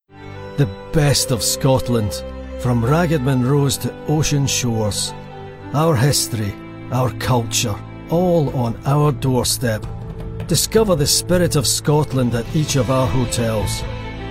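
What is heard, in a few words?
uk scottish commercial, British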